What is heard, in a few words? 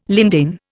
"lindane", Indian